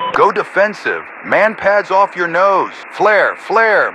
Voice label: Radio pilot Warning MANPADS